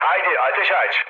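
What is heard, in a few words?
Turkish attack position, mood low, speech; War Thunder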